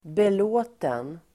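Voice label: "belåten", Swedish; belåten adjektiv, satisfied, pleased; Uttal: [bel'å:ten]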